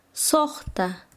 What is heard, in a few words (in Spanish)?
"seis", Quechua Chanka